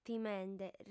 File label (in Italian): "timende", lingua sarda